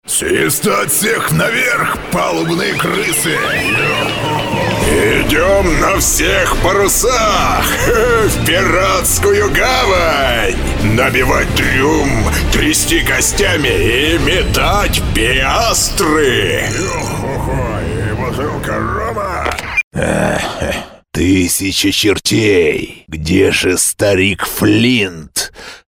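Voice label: pirate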